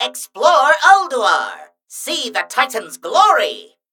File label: Male Mechagnome Play